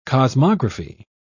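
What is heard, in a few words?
"cosmography", British English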